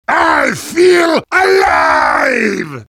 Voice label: positive vocalization; heavy; Garry's Mod; tf2 content

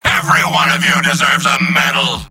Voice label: soldier cheers; mvm

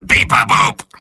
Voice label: soldier robot; mvm

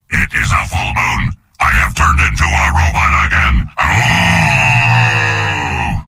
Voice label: soldier robot; mvm

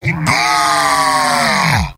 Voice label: heavy jeers; mvm

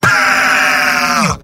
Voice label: jeers; demoman; mvm

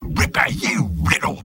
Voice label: sniper positive vocalization; tf2; mvm

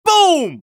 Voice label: scout taunt exert; vo; taunts; tf2

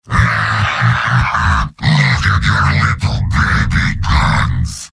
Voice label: heavy taunts; tf2; garrysmod; mvm

